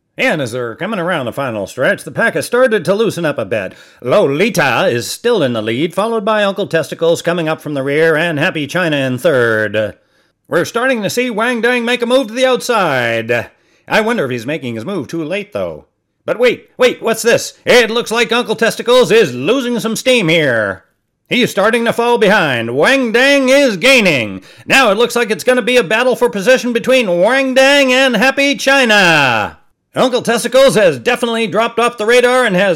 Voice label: Horse Race and Jockey Interview, Comical Horse Race Finish + Spanish Jockey Interview